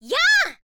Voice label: "yaa", girl